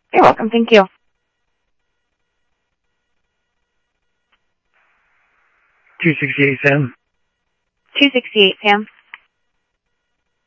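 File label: police department, scanner audio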